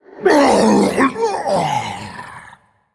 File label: Male Faceless Death